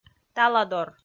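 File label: "таладор", Komi, female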